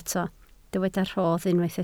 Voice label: "rodd", Welsh

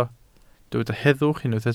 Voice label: "heddwch", Welsh

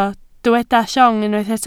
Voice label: "llong", Welsh

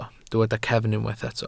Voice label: "cefn", Welsh

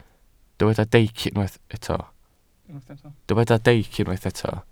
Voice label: "deic", welsh

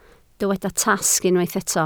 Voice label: "tasg", Welsh

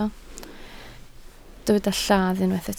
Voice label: "lladd", Welsh

